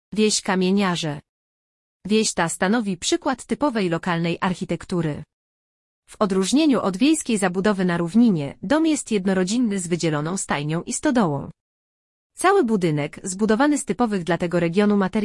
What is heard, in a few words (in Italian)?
Audioguida POL Borgo